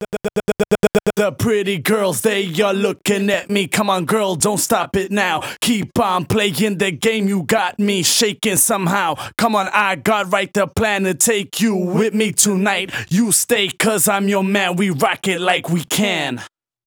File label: Rap To The Top Vocals DRY, 128 BPM